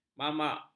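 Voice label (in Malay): "mamak", Malay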